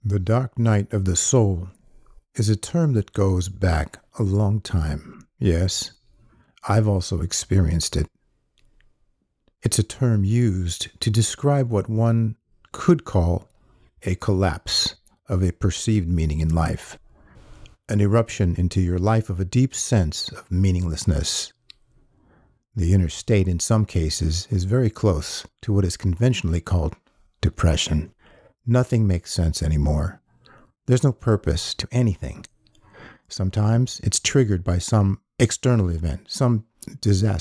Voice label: Sample Low